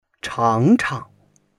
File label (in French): "chang2chang", chinois